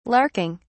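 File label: "larking", British English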